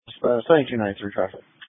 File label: Sheriff Dispatch